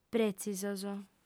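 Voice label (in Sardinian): "prètzisos", Sardinian, female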